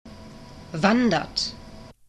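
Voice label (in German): "wandert", German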